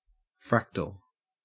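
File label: "fractal", Australian English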